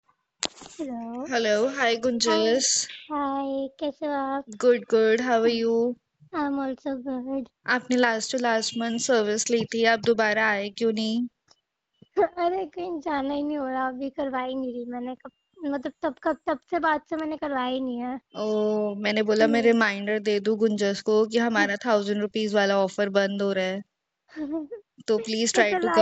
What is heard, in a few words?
Call recording